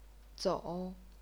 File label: "zou3", chinese pinyin